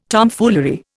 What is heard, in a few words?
"Tomfoolery", Indian